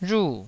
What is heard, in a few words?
"rhu4", taiwanese